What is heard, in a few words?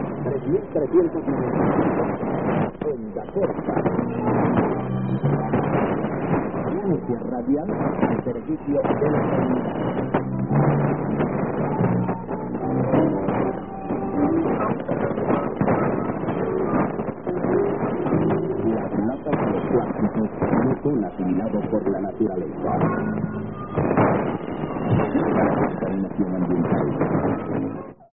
Radio Universidad 3395kHz